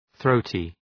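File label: "throaty", English; {‘ɵrəʋtı}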